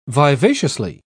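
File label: "vivaciously", British English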